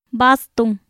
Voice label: "bastun", Popoloca; INALI